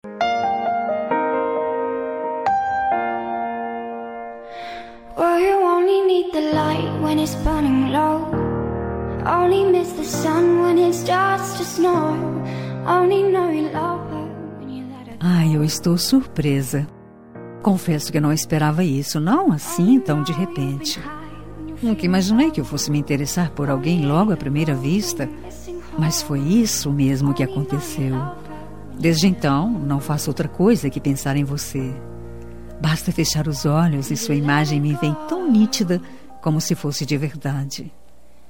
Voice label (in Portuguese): paquera fem, Voz Feminina; Dia dos Namorados; Conquista